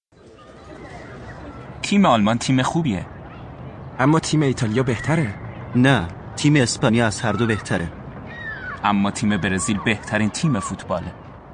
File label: Dialogue lesson Farsi